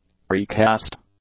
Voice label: "precast", english; adjectives

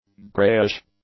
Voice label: "greyish", english; adjectives

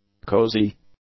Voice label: "cozy", English; adjectives

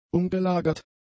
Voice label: "umgelagert", German; adjectives

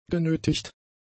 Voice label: "genötigt", German; adjectives

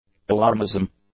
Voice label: "alarmism", English; nouns

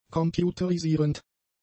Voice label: "computerisierend", German; adjectives

